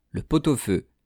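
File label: "le pot au feu", French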